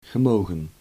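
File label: "gemogen", Dutch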